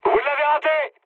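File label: bomb failure, mood high, French; War Thunder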